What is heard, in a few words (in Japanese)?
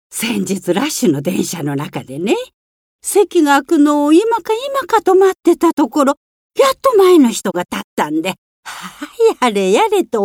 おばあちゃん